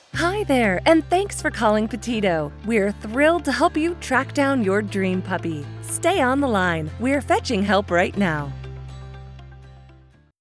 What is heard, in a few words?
Telephony Sample; Pettito Puppies